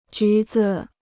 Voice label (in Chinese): "橘子", Chinese